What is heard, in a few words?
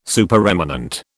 "Supereminent", British